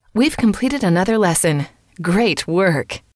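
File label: weve Completed Another Lesson Great Work, female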